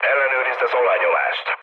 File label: damaged oil, speech hun; War Thunder